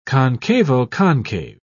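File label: "concavo-concave", English, British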